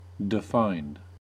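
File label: "defined", US English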